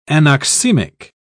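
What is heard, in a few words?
"anoxemic", British English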